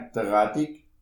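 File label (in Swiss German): "raddi", Alsatian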